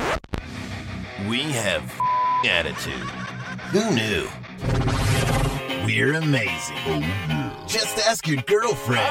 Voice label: Radio Imaging